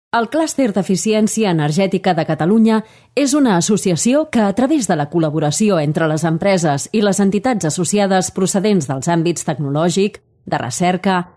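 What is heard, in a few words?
Catalan Female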